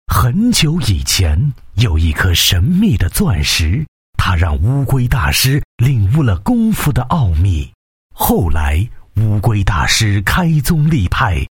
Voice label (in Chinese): 男 游戏 手游配音 神秘, 国语男声配音; 钻石英雄会